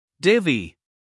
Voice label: "divvy", US English, male; everyone-can-use-english